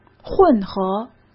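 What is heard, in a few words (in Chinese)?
"混合", Mandarin Chinese; chinese flashcards; hsk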